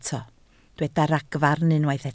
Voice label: "ragfarn", Welsh